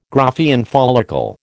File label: "Graafian follicle", American